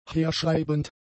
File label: "herschreibend", German; adjectives